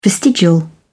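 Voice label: "vestigial", British English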